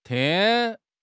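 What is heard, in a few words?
"yin3", Mandarin Chinese; HSK1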